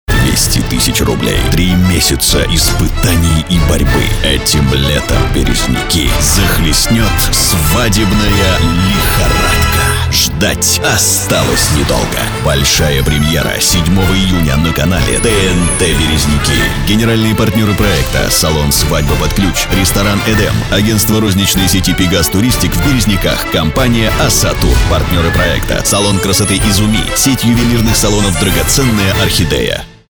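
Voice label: male voice, Russian; muzhskie golosa, diktory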